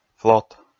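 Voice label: "флот", Komi, male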